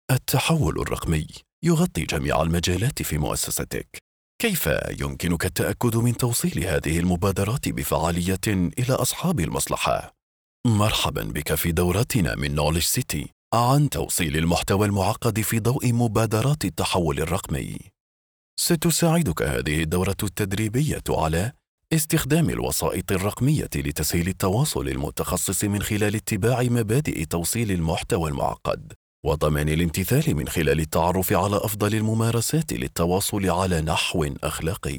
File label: Arabic E-learning